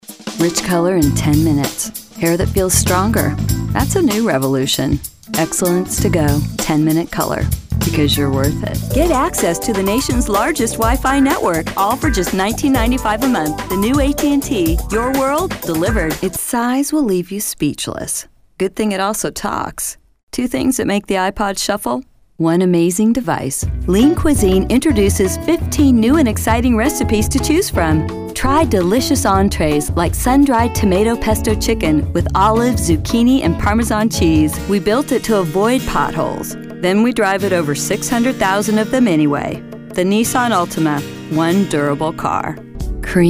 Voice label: Commercial